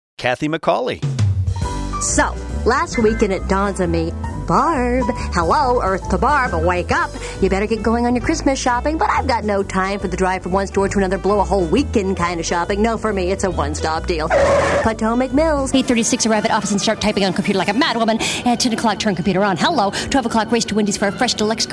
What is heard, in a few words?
commercial